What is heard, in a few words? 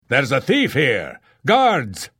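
Thief, voice; Morrowind GOTY GOG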